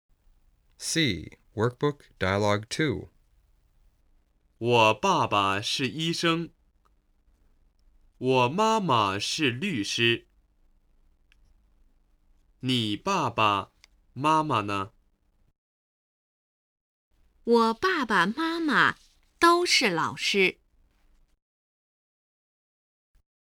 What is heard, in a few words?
Workbook Dialogue